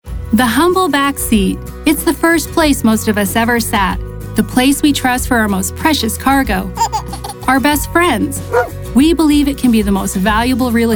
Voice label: voice Commercial